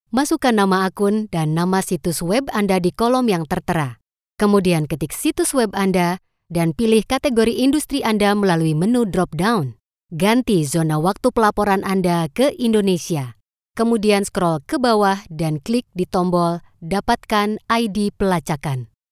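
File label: Indonesian Lady elearning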